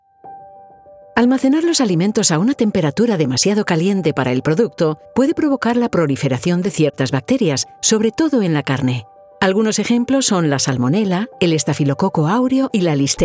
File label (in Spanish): E-LEARNINGS CADENA DE FRIO; LACTALIS